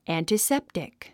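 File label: "antiseptic", English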